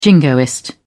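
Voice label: "jingoist", British English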